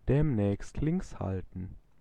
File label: Keep Left, German, male